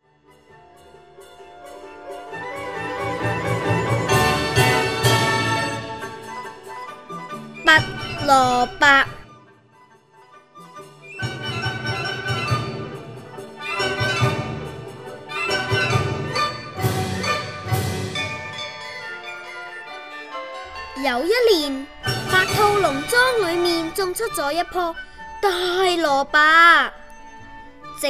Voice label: Story